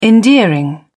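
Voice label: "endearing", British English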